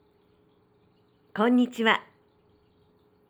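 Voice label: "konnnichiwa", Japanese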